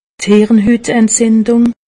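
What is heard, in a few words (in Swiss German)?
"hirnhutentzindung", Alsatian; medecine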